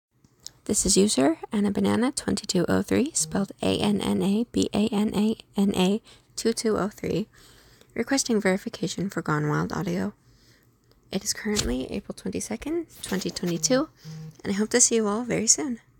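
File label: Female Verification Request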